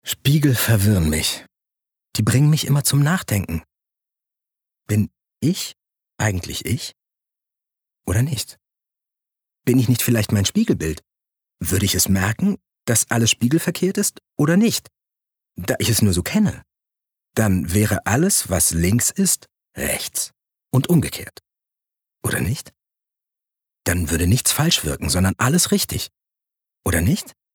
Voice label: Innerer Monolog

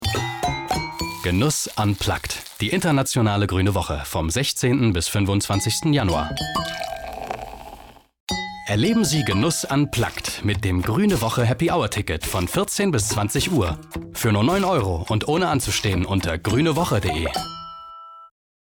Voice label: funkspot; gruene woche

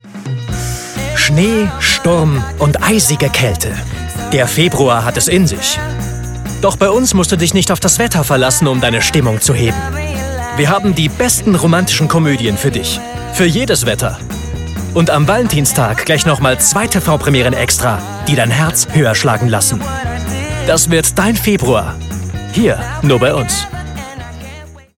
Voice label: Station Voice Romkom jung

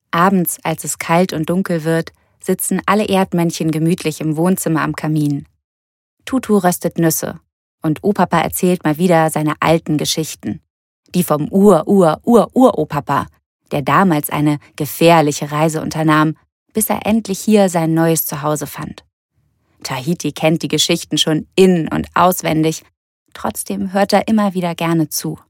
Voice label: Kinderhoerbuch

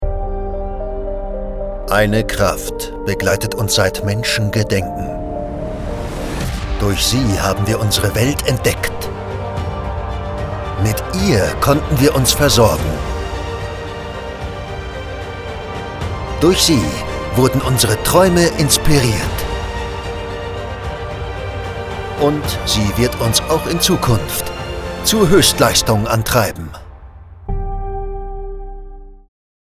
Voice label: Werbung Windenergie; LOFT VOICES

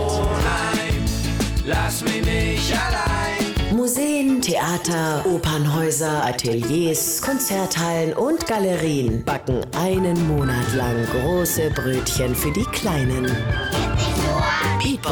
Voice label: Kind und Mutter Trailer; Kinderkulturmonat

